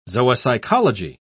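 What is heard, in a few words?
"zoopsychology", British English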